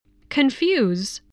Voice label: "confuse", english